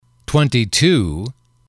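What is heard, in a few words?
twenty two, English, male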